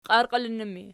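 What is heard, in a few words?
"qiarqiilinnu" ergative, Archi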